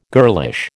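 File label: "girlish", American English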